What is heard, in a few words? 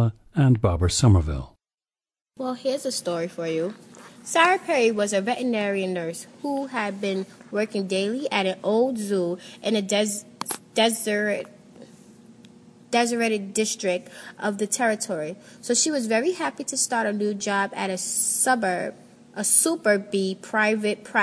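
new york, International Dialects of English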